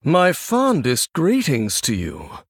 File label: Male Human Greetings